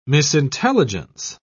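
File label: "misintelligence", British English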